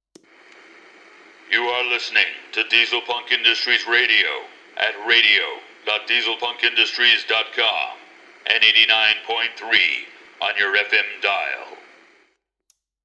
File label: station id